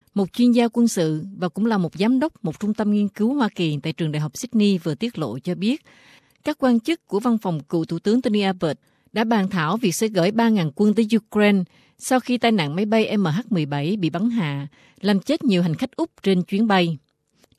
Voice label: vietnamese